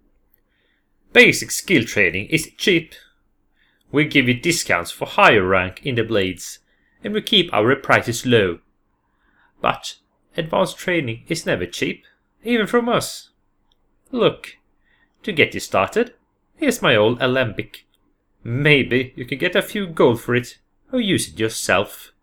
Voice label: altmer, high elf voice auditions; tyermaillin